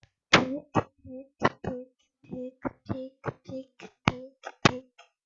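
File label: "pick", spoken word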